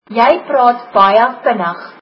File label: you speak very fast, afrikaans